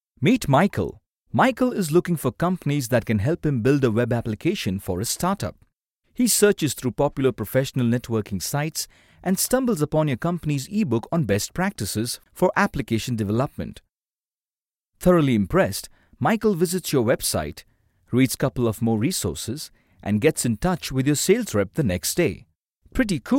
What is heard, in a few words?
explainer eng